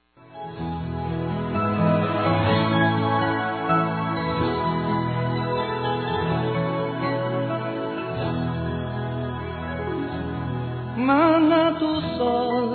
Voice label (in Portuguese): Telemensagens Aniversário; Aniversários; Aniv Familiar